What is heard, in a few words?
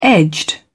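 "edged", British English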